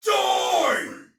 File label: Attack Normal, Soldier Male; Dialog; Barks; Battle; Sinecure